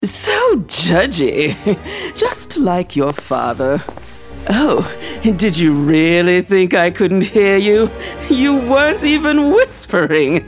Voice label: animation video games